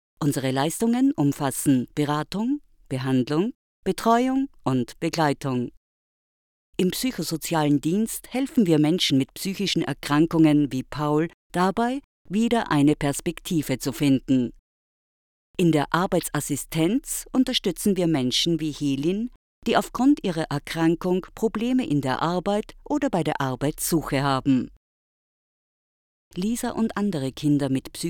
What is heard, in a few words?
female Austrian smooth, recited, commercial; 30-60